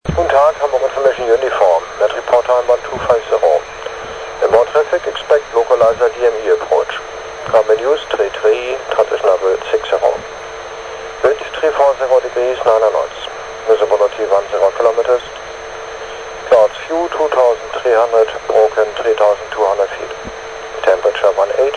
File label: atis; hamburg uniform